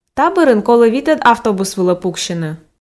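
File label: "та бӧрын колӧ витӧд автобус вылӧ пуксьыны", Komi, female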